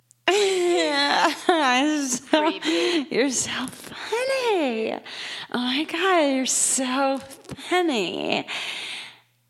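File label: Female Drunk Talk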